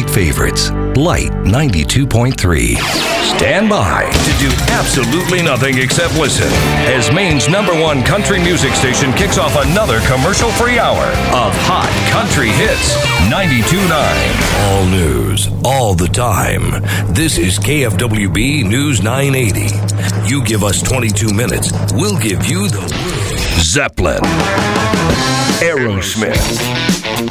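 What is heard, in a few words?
radio imaging, male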